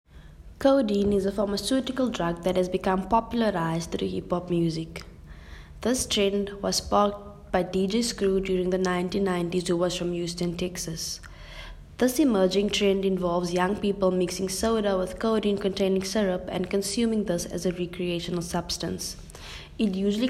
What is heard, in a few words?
voice over